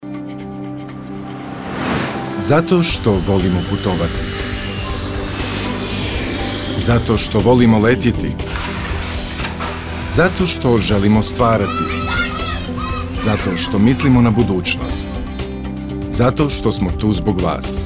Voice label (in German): Neutral; HR